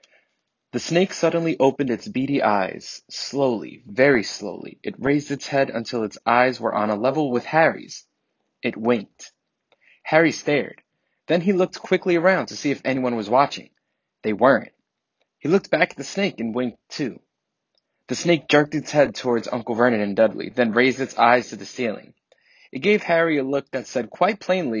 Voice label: Apple AirPods Pro audio sample

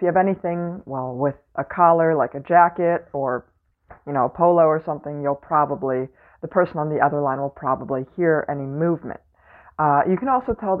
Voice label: V Moda M 100 Master microphone

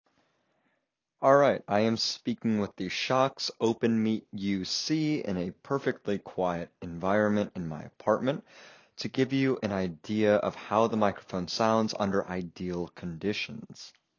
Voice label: microphone ideal non standardized; Shokz OpenMeet UC

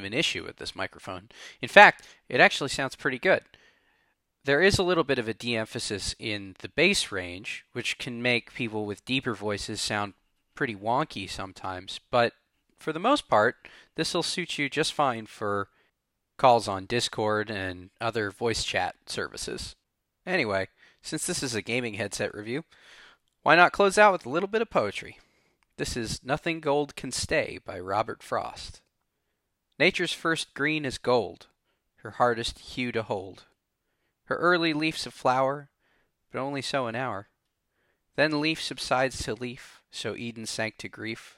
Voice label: HyperX Cloud Mix Mic sample

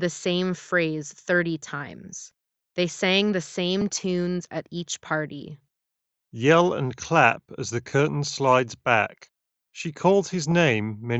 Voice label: Wireless, Ideal microphone sample; JLAB Nightfall; gaming headset

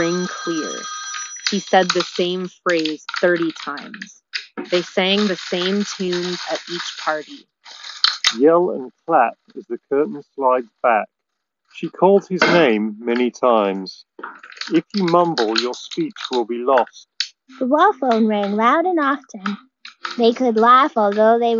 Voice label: Office microphone sample; Sony WF C 500

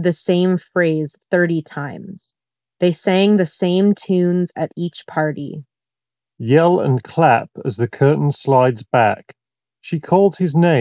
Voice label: Skullcandy Rail ANC Ideal microphone sample

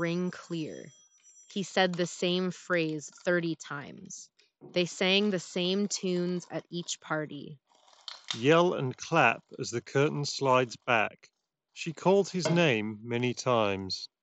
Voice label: Razer Barracuda X Office microphone sample

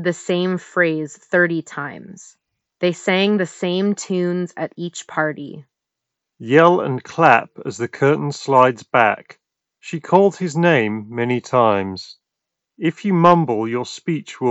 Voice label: microphone ideal; jbl vibe beam

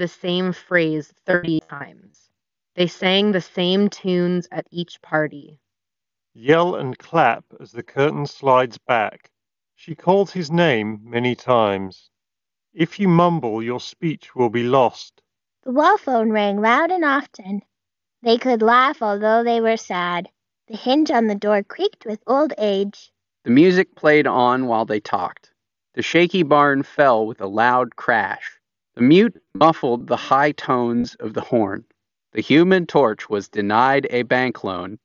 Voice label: Ideal microphone sample; Denon AH C830NCW

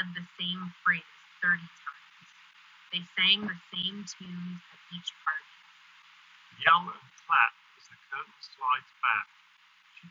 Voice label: Beats Fit Pro Wind microphone sample

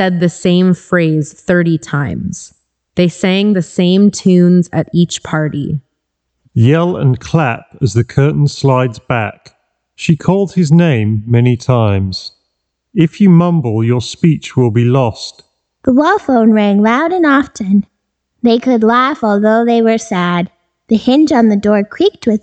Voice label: Reverb microphone sample; Beyerdynamic MMX 300 Pro

